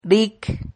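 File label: "ric", Spanish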